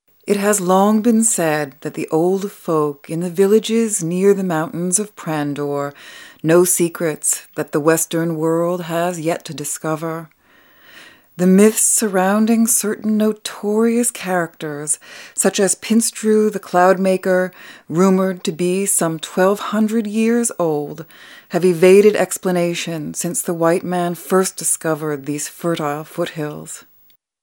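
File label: F EN documentary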